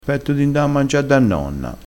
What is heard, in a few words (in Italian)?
"ha furia", crespinese, vocabolario dialettale